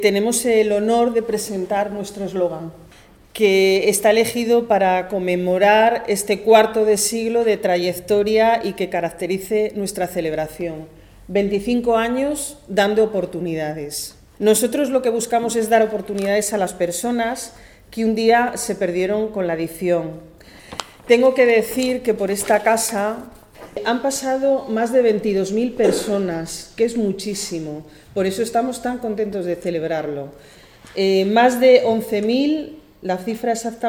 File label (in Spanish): sonido rueda prensa; 25 AÑOS PROYECTO HOMBRE